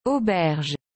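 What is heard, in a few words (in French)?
"Auberge", French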